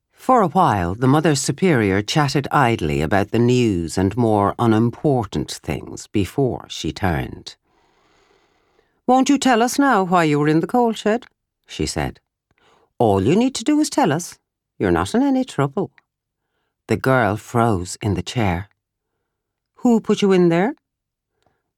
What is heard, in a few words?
Narration and Irish; voice over; voice over artist